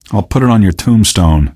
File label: answer, male; Garry's Mod; hl2